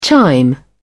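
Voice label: "chime in", English, British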